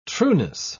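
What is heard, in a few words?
"trueness", British English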